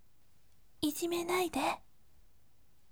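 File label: voice, gentle; ijimenaide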